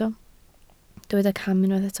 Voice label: "cam", Welsh